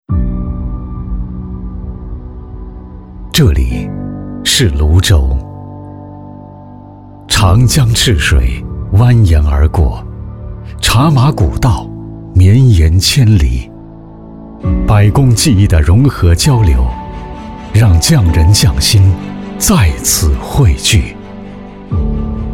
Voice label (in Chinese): 园区宣传浑厚大气; 西部工匠城